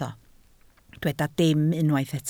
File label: "dim", Welsh